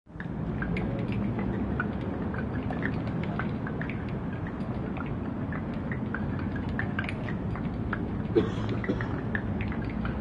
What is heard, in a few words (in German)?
Sprachnachricht